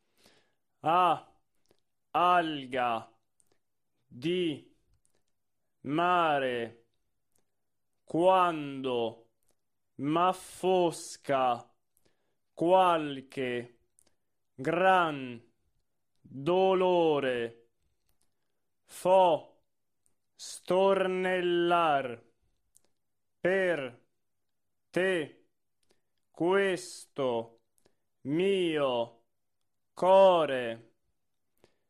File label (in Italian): monotono; Cimara